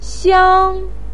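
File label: "xiang1", Mandarin pinyin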